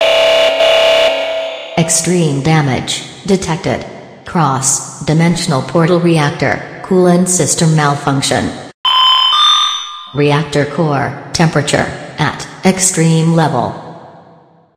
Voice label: announcement; svencoop; st mission; core

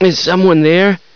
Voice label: toilet scare; svencoop; echoes; scientist

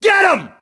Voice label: suppressing, grunt; svencoop; st allies; nimble grunt

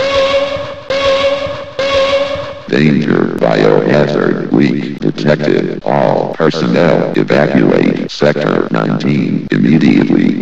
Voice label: announcement; svencoop; st mission; sector19

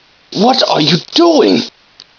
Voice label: scared; svencoop; scientist; trask

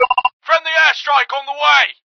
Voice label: radio airstrike; svencoop

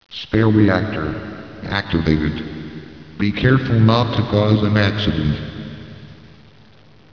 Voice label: comp voice; svencoop

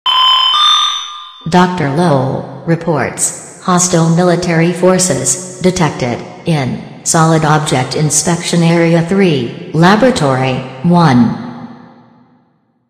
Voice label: announcement; svencoop; st mission

